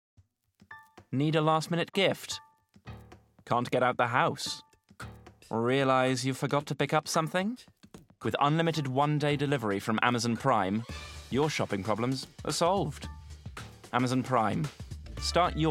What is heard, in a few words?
Commercial; Amazon Prime